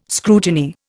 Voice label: "scrutiny", Indian

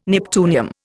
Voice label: "neptunium", Indian

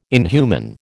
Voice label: "inhuman", American

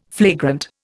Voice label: "flagrant", Indian

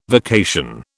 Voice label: "vacation", British

